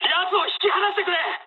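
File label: aircraft damaged mood high, speech japanese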